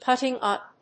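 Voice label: "putting- up", English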